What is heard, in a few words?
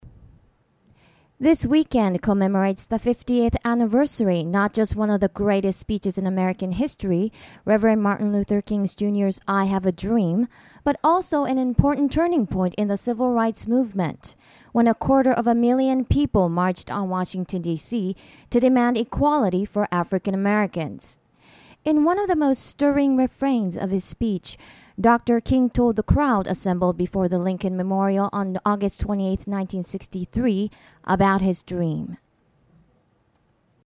en